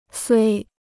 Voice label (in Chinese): "虽", Chinese